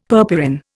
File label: "purpurin", Indian; Dictionary